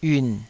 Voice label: "yiun3", Taiwanese